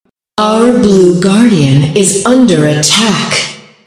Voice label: female ally blue guardian attack, announcer female; patron